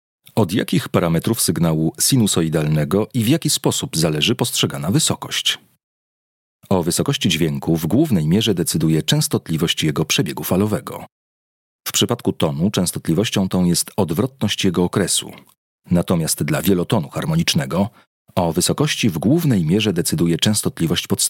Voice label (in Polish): Lektor, Studio; Studio Nonagram